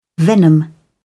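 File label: "venom", British English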